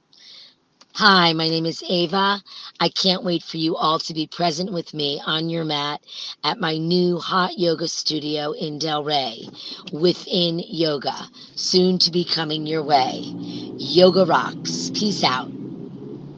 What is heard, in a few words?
Audio Message